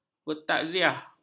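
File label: "bertakziah", Malay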